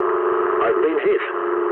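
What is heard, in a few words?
aircraft damaged mood low, speech English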